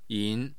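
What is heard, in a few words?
"yin2", Mandarin Chinese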